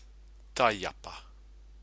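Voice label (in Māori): "taiapa", Māori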